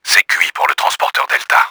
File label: DELTA DOWN, french; Battle Engine Aquila; XBOX